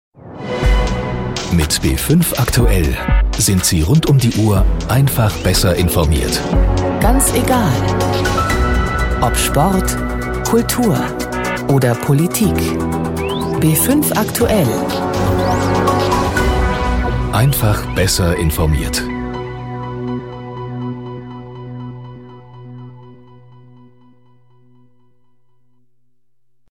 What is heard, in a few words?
Image Promo